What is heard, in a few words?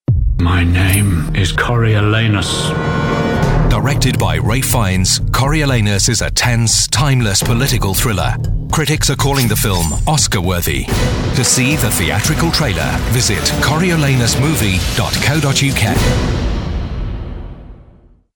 english british movie trailers